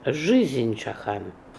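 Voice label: "Lifechakan", pronunciations; Fourth Way; Beelzebub pronounciations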